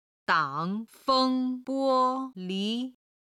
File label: "dang3 feng1 bo1 li2", chinese